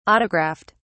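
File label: "autographed", British English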